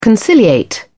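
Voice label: "conciliate", British English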